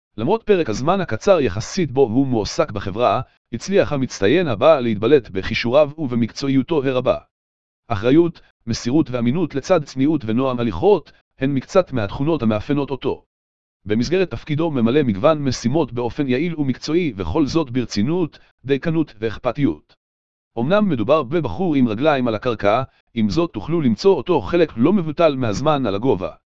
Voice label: video narration audio sample heb